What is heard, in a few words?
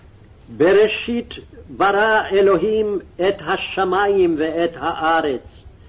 Genesis Reading Practice, Aramaic